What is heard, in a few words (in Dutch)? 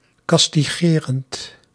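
"castigerend", Dutch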